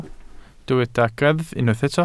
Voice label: "greddf", Welsh